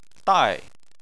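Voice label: "dai" fourth tone, Chinese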